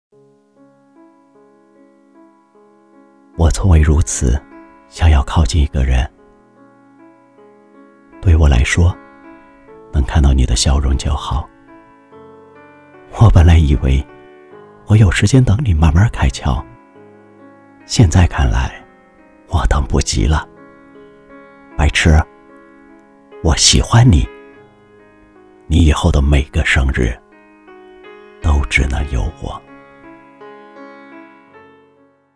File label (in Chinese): 男 旁白 温情走心讲述类